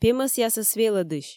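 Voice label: "пемӧсъясӧс велӧдысь", Komi, female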